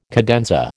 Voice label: "cadenza", American

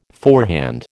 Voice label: "forehand", American

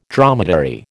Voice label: "dromedary", American

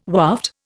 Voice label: "waft", Indian

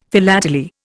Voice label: "philately", Indian; Dictionary

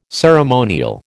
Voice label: "ceremonial", American

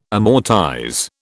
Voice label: "amortize", British

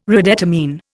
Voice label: "redetermine", Indian

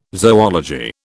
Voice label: "zoology", British

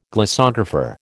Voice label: "glossographer", American

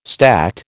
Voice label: "stacte", American

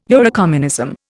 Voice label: "Eurocommunism", Indian

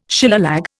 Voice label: "Shillelagh", Indian

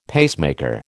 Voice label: "pacemaker", American

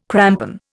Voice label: "crampon", Indian

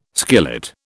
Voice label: "skillet", British

